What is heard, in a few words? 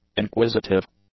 "inquisitive", English; adjectives